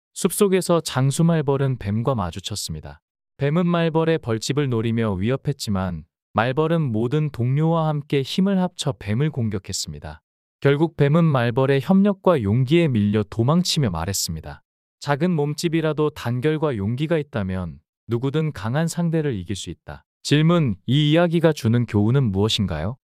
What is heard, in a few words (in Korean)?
이야기 남자; 장수말벌과 뱀